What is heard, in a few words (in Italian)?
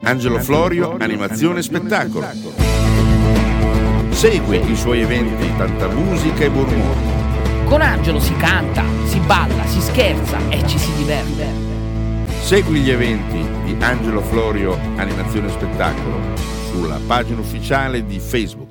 Spot